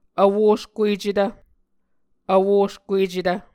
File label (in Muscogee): "vwoskuecetv", Muscogee